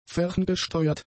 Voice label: "ferngesteuert", german; adjectives